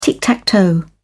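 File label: "tic-tac-toe", British English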